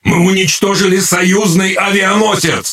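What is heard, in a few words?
Russian Speech Destroy Ally Aircarrier; World Of Warships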